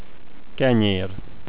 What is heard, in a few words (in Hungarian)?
bread, hungarian